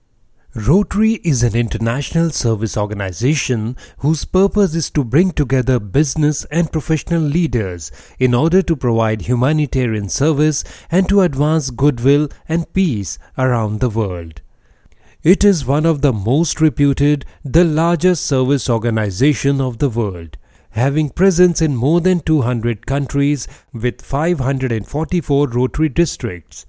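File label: corporate english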